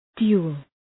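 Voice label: "dual", English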